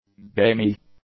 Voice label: "gamy", English